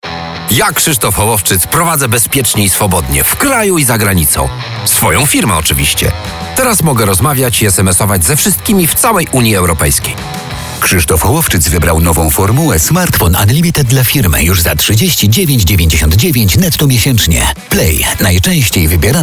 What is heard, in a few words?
RADIO; Holowczyc; FORMULA Smartfon